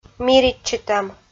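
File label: "миритчытӧм", Komi, female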